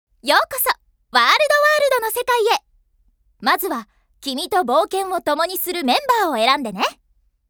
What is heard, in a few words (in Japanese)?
20代 女性